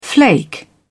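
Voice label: "flake", British English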